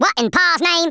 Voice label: what the, Redneck

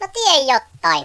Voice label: come on then, Finnish; Worms Armageddon

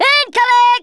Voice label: "incoming", Drill Sergeant; Worms Armageddon

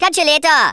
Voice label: bye bye, Speech Rasta

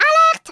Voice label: ORDERS, French; Worms Armageddon

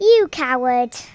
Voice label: coward, Speech Kidz; Worms Armageddon

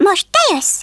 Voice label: "dragon punch", Hungarian; Worms Armageddon

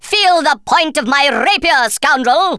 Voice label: first blood, Speech Thespian; Worms Armageddon

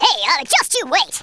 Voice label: "Just you wait", Wacky

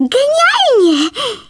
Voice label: AMAZING, Speech, Polish; Worms Armageddon

